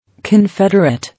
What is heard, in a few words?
"Confederate", English